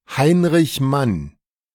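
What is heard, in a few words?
"Heinrich Mann", German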